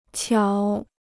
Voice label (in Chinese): "巧", Chinese